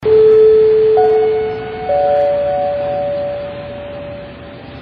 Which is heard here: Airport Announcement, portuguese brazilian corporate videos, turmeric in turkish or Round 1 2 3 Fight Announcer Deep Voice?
Airport Announcement